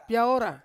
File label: "piaora", Ansus; caterpillar